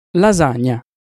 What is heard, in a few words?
"lasagna", Italian Pronunciations